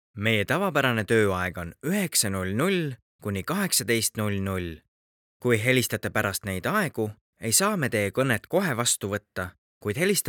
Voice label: Estonian IVR VOICE ACTOR; meeshaaled